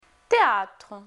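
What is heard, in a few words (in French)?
"théatre", French; Phonetique; Consonnes; Lingvo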